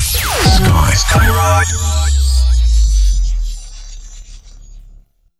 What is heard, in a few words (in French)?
VIRGULE PUB NOEL; SKYROCK